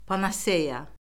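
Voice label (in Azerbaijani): "panaseya", Azerbaijani; Orfoqrafiya Lüğəti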